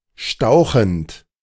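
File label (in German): "stauchend", German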